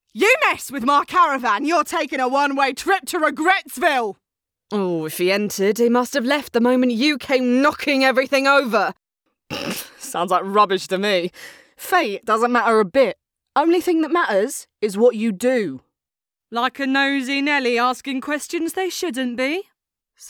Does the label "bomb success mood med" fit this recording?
No